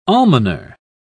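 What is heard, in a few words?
"almoner", British English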